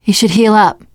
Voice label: suggest health, teengirl, voice; player; survivor